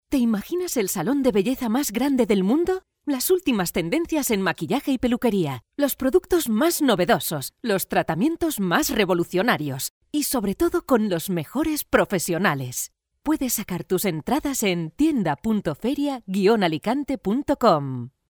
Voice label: castilian female upbeat